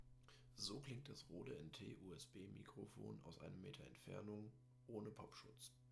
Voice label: Rode 1m ohne Popschutz

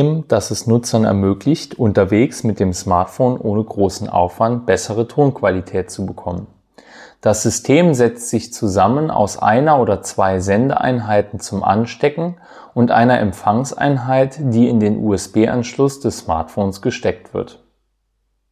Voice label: Testaufnahme mit Noise Cancelling; hollyland lark c1 test

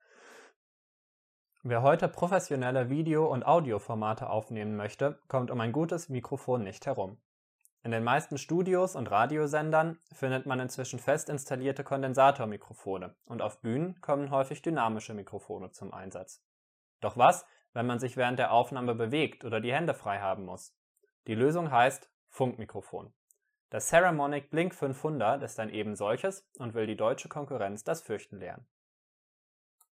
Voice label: Leise; saramonic blink 500 b2w; Audacity

